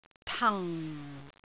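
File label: "tang4", Cantonese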